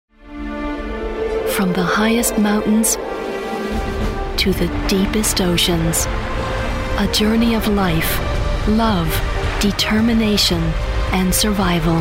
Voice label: american promos